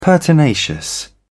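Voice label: "pertinacious", British English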